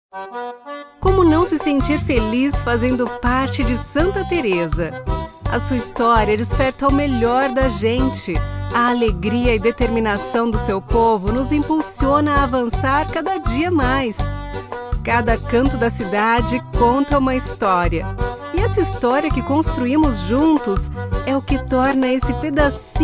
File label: portuguese brazilian political ads